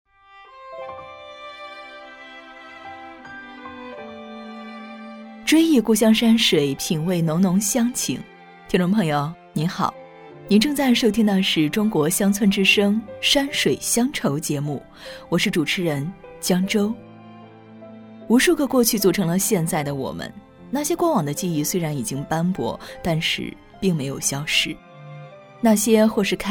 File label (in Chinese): 女 广播节目; 山水乡愁